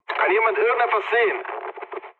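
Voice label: German chat, speech; War Thunder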